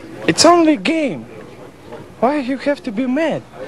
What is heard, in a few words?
mad